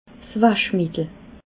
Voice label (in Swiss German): "waschmittel", alsace